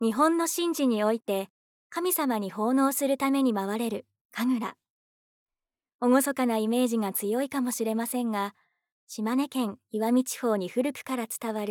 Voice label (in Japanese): ナレーション